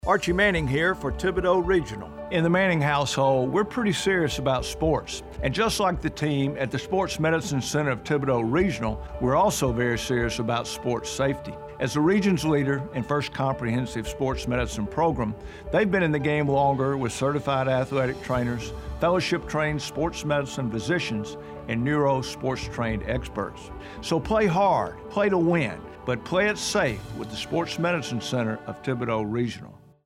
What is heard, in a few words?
Radio; THIBODAUX SPORTS MEDICINE; GENERAL SAFETY